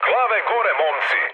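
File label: Serbian near waypoint mood med; speech; War Thunder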